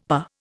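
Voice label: "per", Indian English; Dictionary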